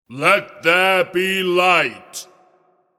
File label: Let There Be Light Spoken, Let There Be Light’ spoken in commanding male voice; Recorded Voice